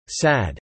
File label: "sad", English